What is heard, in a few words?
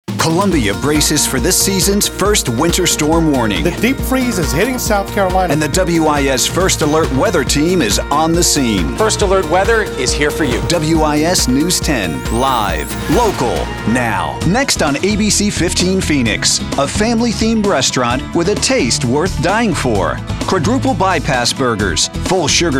men tv affiliate; Warm, personable, and normal-sounding guy. Versatile and direct-able.